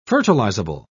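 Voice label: "fertilizable", British English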